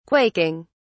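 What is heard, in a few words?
"quaking", English, British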